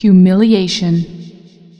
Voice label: "humiliation", female; feedback

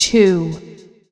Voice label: "two", female; feedback

